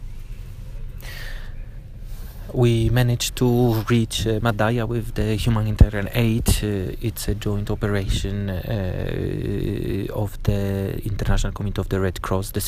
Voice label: voice diary